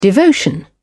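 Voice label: "devotion", British English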